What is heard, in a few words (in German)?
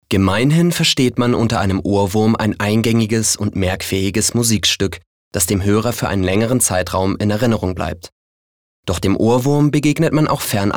Ironisch; Ohrwurm